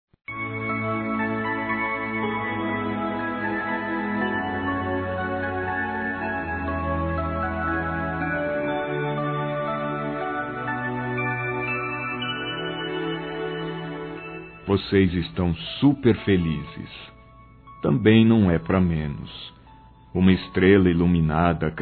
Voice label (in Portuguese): PARAB. PELO NASCIMENTO, Telemensagens, Maternidade, masc